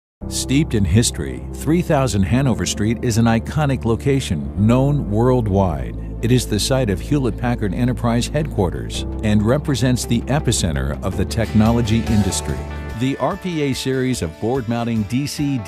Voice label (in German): Erklaervideo Voiceover; Simple Animations